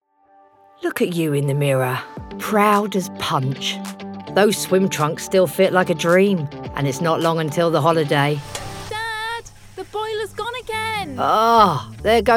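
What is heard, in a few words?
Natural, Encouraging, English; British Gas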